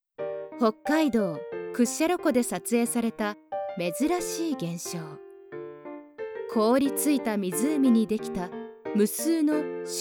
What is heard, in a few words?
narration